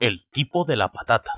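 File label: "el tipo de la patata", Spanish; ktuberling; tdegames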